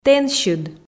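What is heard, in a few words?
"тэнсьыд", Komi, female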